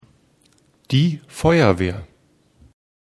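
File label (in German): "feuerwehr", German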